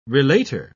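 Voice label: "relator", British English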